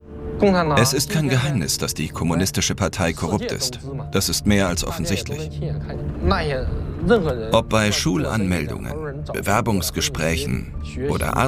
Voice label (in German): Voice Over, maenner; China der entfesselte Riese